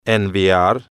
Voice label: "enviar", spanish